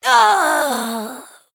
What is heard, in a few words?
Female Night Elf Death